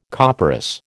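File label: "copperas", American English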